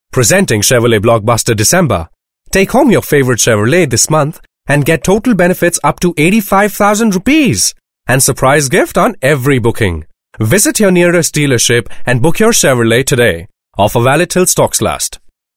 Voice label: English, male voice